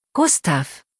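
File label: "Gustav", German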